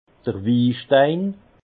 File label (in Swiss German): "wistein", alsace; vin; tannin